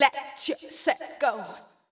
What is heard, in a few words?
VOICE GRL HOUSE 125 A